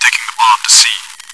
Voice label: chitchat, bot, voice commands; svencoop

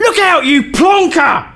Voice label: Look Out, male; svencoop

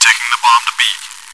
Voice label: chitchat, bot, voice commands; svencoop

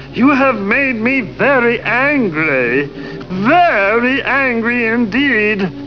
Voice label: angry

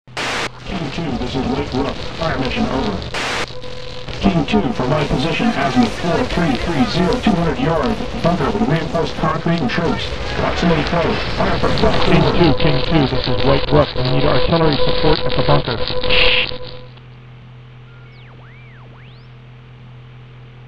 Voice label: allies radio help; dod; mapsounds; palsmane

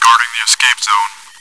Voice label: chitchat, bot, voice commands; svencoop

